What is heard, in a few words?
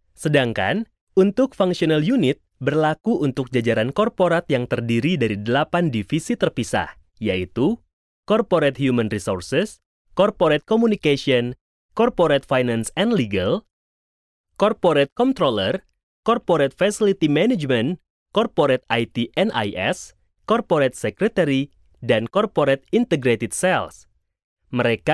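Indonesian e-learning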